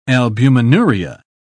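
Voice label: "albuminuria", British English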